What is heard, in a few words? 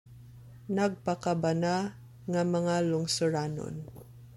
Cebuano; concerned citizens; nagpakabana nga mga lungsuranon